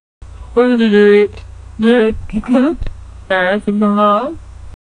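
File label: a japanese woman that says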